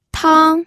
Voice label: "tang1", pinyin